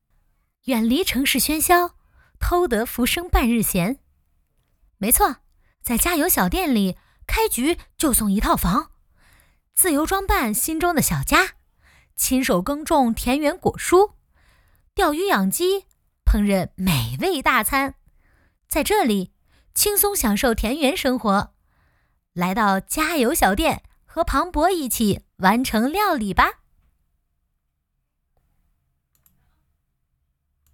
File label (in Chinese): 游戏 广告; 家有小店